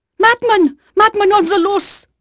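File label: French female; Pirates Of The Caribbean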